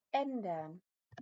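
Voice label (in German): "ändern", German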